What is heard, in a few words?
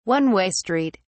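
"one-way street", English, British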